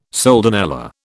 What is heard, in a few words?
"soldanella", British